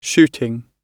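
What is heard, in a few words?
"shooting", British English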